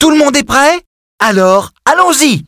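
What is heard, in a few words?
Everyones Ready voice, French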